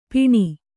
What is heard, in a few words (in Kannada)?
"ಪಿಣಿ", Kannada